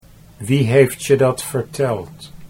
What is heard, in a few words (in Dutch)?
wie heeft je dat verteld, Dutch